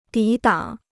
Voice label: "抵挡", Chinese

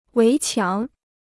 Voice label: "围墙", Chinese

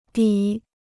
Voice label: "底", Chinese

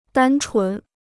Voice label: "单纯", Chinese

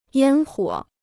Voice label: "烟火", Chinese

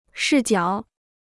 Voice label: "视角", Chinese

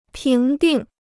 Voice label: "评定", Chinese

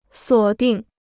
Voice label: "锁定", Chinese

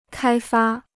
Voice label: "开发", Chinese

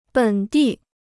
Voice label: "本地", Chinese

